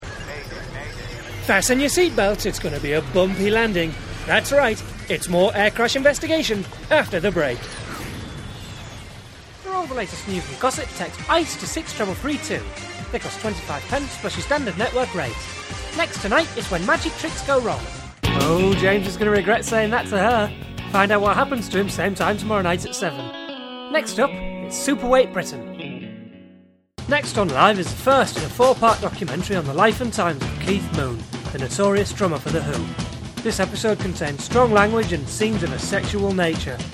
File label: Continuity